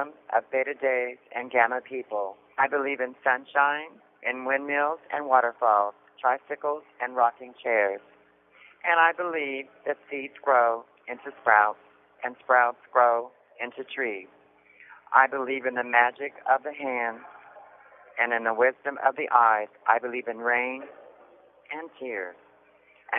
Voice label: Affirmation read by